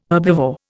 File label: "herbivore", Indian